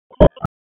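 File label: Raleigh Police Southeast District Tactical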